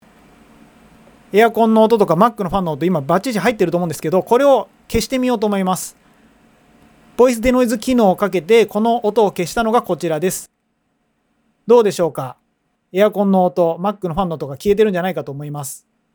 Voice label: voice de noise